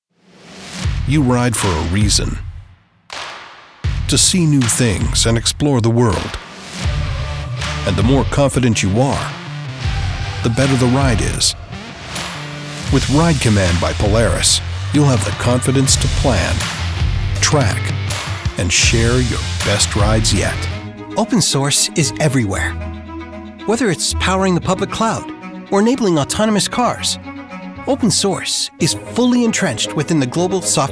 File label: men narration